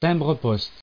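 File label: "timbre-poste", French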